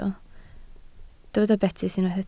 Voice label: "betys", Welsh